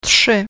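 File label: "trzy" Polish female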